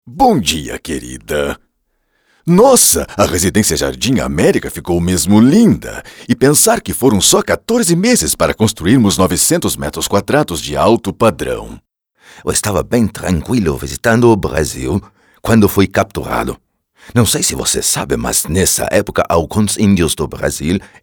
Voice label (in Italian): CARICATA